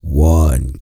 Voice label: COUNT, Vocal Planet, R&B & Dance, COUNTING; ILIO